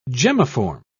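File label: "gemmiform", British English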